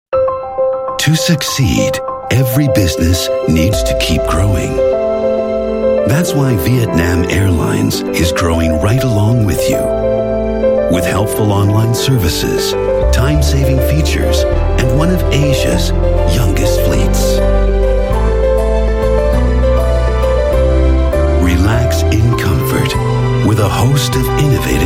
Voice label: US English; Vietnam Airlines